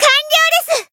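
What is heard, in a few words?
Voice finish, Japanese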